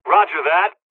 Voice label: dialogs serbian; War Thunder

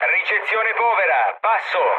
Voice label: chat, speech it; War Thunder

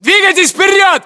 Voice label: order move forward, ru

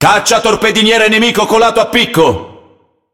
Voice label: It Speech Destroy Enemy Destroyer; World Of Warships

